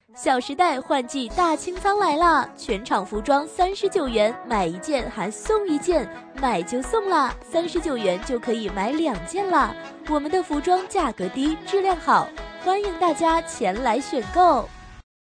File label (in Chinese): 女 促销 激情